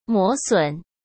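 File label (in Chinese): "磨损", Mandarin Chinese; hsk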